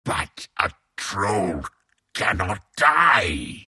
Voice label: troll death; troll warlord

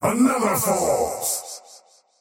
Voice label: last hit pain, faceless void; dota

